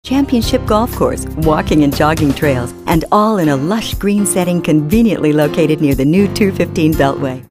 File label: upbeat